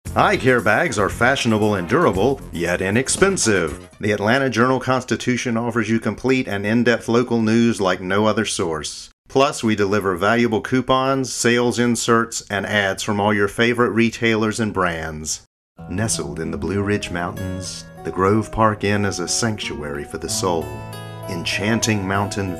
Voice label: commercial